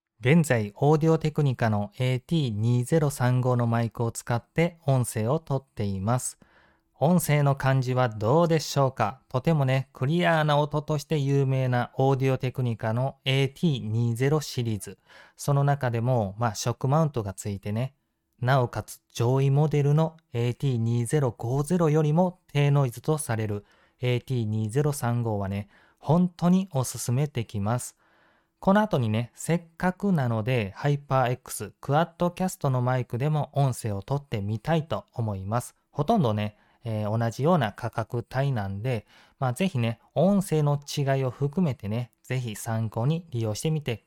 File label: at2035 audio technica microphone